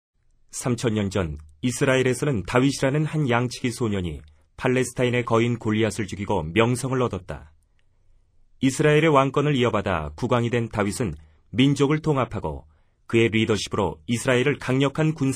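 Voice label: Korean male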